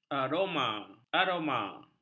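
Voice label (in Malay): "aroma", Malay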